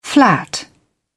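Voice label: "flat", British English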